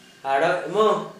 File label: "aɽojʔ=mo", Gta, Dialect: Hill; long ago